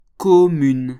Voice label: "commune", French

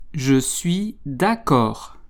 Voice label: "je suis d'accord", French

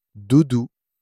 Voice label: "doudou", French

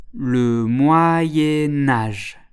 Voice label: "le moyen age", French

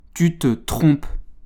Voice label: "tu te trompes", French

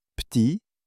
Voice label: "p'tit", French

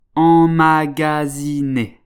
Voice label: "emmagasiner", French

